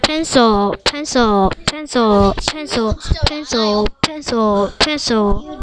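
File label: "pencil", spoken word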